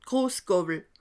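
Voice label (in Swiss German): "grossgawwel", Alsatian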